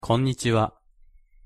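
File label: "konnichiwa" Japanese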